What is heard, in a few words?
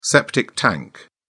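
"septic tank", British English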